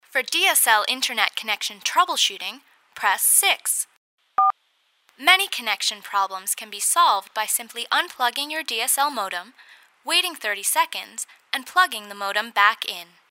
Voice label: IVR; DSL